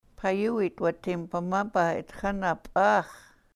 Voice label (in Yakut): payuwit watimpamapa itx̱ana p'aax̱, sahaptin